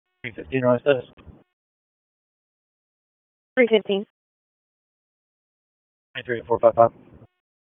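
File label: Raleigh Police Northeast District Dispatch, p25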